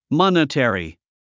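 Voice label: "monetary", English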